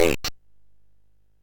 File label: MOS6581R3, Electronic Speech Systems